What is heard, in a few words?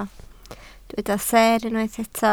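"seer" Welsh, spoken word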